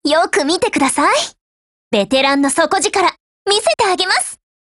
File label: GO ATTACK, JP